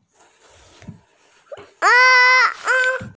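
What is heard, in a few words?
"gong yuan", Mandarin Chinese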